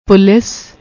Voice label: "police", Punjabi; ਪੁਲਿਸ